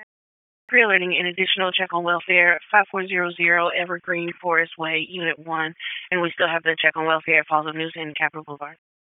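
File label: Police Northeast District Dispatch, p25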